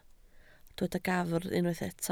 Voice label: "gafr", Welsh

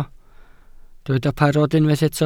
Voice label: "parod", Welsh

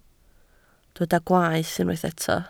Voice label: "gwaeth", Welsh

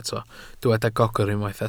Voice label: "gogr", Welsh

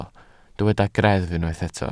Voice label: "greddf", Welsh

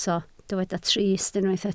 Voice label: "trist", Welsh

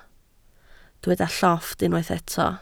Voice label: "llofft", Welsh

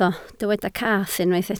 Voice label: "cath", Welsh

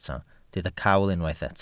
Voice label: "cawl", Welsh

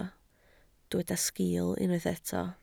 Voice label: "sgiil", Welsh

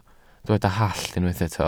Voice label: "hallt", Welsh

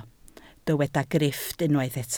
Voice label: "grifft", Welsh

